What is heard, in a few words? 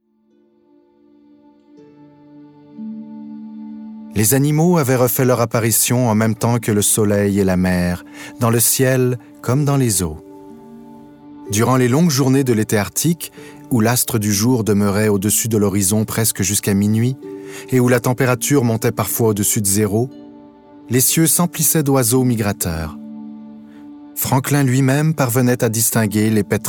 Narration; Narration extrait du roman "Terror" de Dan Simmons (1 min 08)